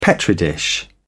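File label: "petri dish", English, British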